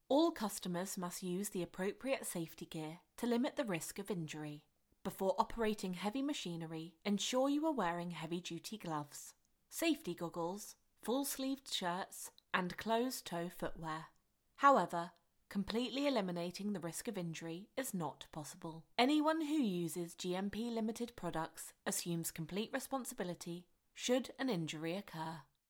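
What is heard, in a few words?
British English, Explainer; voice demos; GoLocalise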